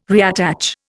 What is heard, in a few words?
"reattach", Indian